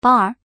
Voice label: slot fruits female